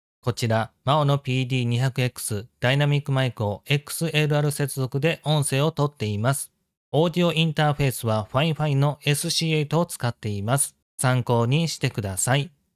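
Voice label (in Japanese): maono PD200X XLR接続音声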